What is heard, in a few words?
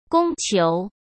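"供求", Mandarin; chinese flashcards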